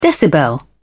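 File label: "decibel", English, British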